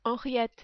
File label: "Henriette", French